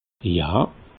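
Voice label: "ja", Alsatian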